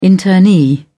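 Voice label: "internee", British English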